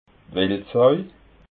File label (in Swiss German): "wildsaui", alsace